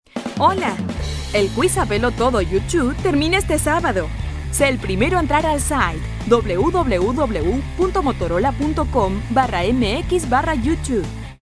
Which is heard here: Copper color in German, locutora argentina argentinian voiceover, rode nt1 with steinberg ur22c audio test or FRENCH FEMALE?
locutora argentina argentinian voiceover